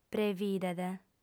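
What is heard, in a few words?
"previdet", Sardinian, female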